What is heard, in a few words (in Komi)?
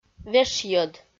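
"вешйӧд", Komi, female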